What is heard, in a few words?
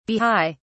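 "bahai", British English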